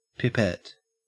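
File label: "pipette", Australian English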